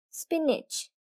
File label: Spinach, girl